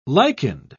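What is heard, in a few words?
"lichened", British English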